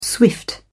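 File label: "swift", British English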